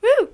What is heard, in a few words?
gal happy; Classic Cribbage 1.0